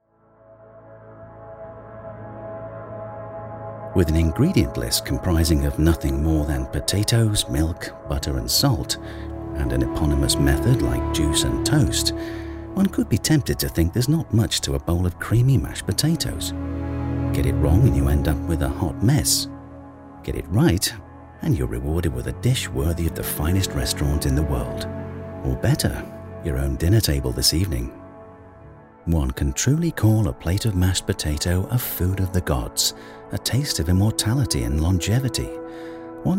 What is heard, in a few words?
British Voiceover Documentary; PLAT DU JOUR; Mashed Potato